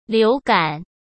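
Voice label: "流感", Mandarin Chinese; hsk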